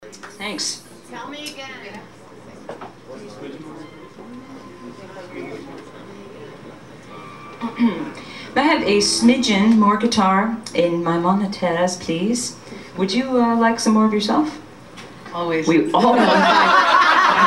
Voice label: Live Patter, Tin Angel